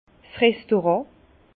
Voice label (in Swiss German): "restaurant", Bas Rhin